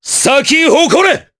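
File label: Skill, Japanese; Nicx; kingsraid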